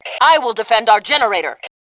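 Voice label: "self defend generator", Fem2; Tribes2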